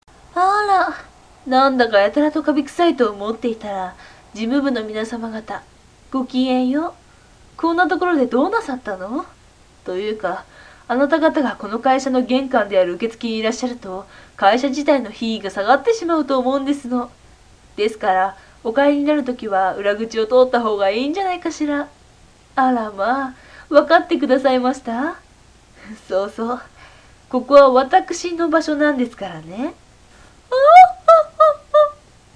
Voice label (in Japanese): zyosei